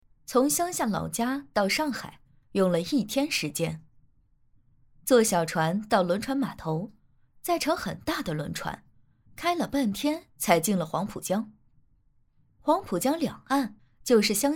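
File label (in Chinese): 儿童读物旁白女声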